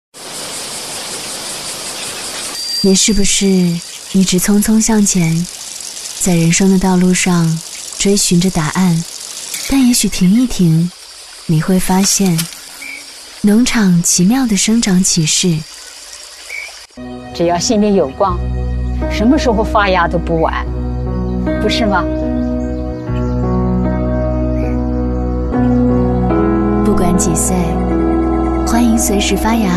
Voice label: 女-走心广告-清新自然; Farmacy 奇妙农场物语

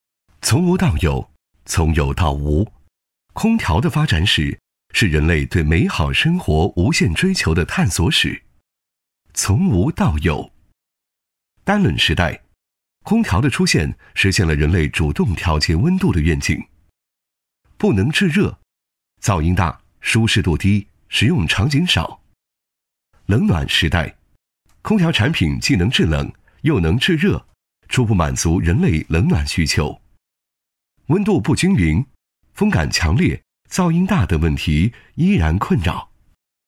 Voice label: 男, 企业宣传旁白, 磁性自然讲解; 集团企业宣传类型; 男181

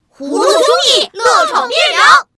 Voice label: 女 角色模仿; 《葫芦兄弟》

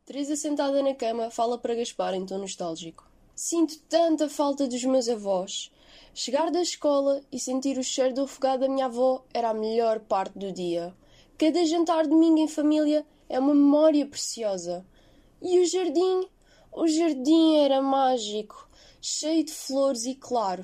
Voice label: 葡萄牙语女声 欧洲

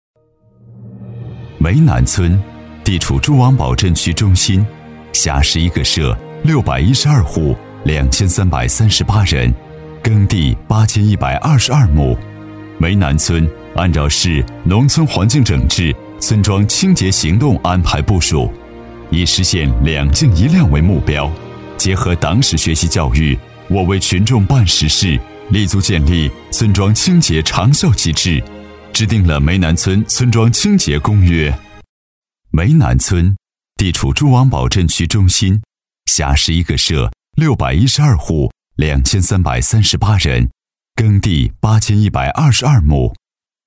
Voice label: 男 党建专题 大气浑厚

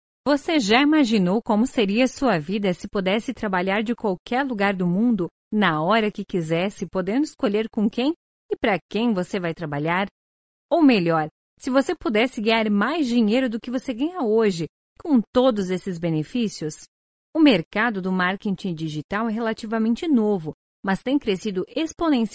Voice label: 葡萄牙语女声

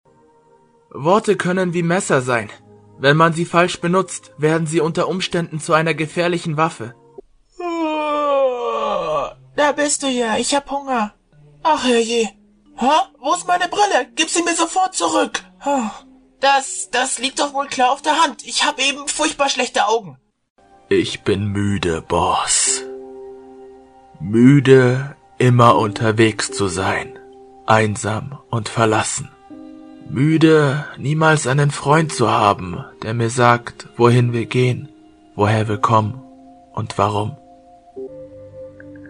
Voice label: 德语男声-角色

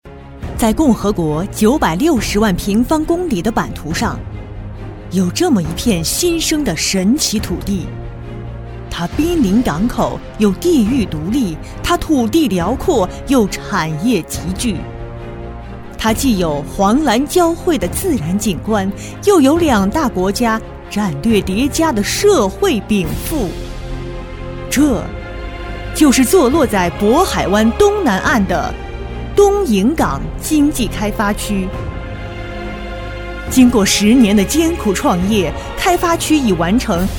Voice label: 女 政府专题 大气汇报片; 知性 温婉; 大气浑厚

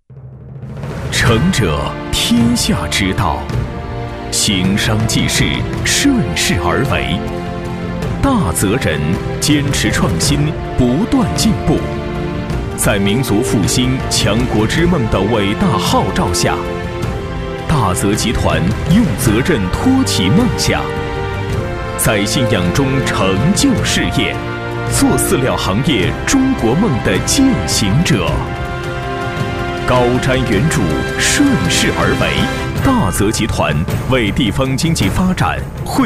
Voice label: 男 企业宣传片 大气厚重; 男40-磁性稳重 大气浑厚; 大泽集团

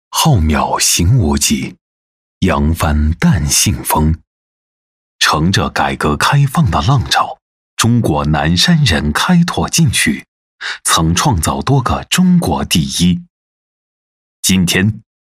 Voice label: 男 专题配音 大气厚重; 磁性沉稳; 大气浑厚; 宝安产城

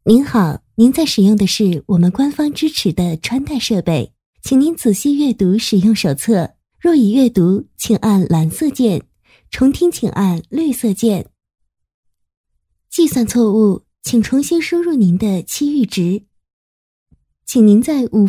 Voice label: 女 语音 IC 语音提示 年轻甜美

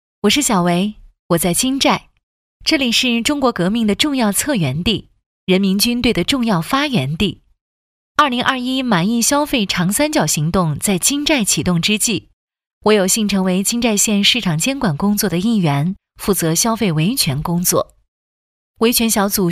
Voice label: 女 角色 年轻女性职工; 小唯

